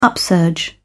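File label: "upsurge", British English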